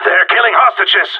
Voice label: hostage down, radio; GSG9